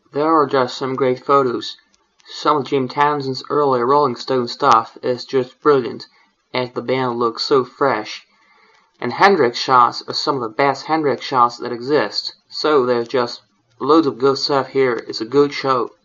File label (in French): court extrait anglais US